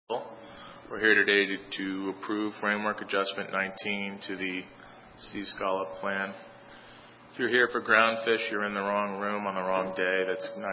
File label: Intros and Announcements; council